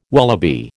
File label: "wallaby", American